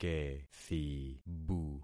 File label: "kethibu", concat syllables; Affixing experiment